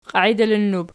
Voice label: "qiaidilinnub", Archi